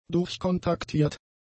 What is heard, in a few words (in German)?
"durchkontaktiert", German; adjectives